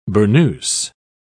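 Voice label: "burnous", British English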